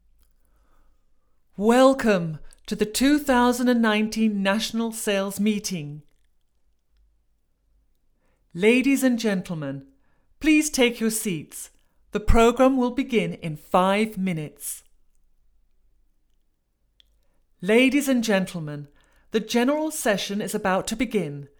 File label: Live event Welcoming